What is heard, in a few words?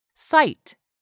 "SIGHT", english; 3000 words